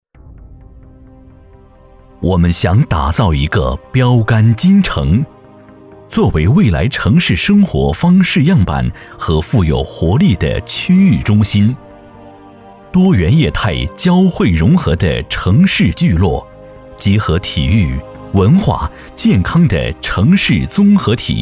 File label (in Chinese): 男 建筑多媒体; 金城建设